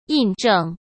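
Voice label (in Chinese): "印证", Mandarin Chinese; chinese-flashcards; hsk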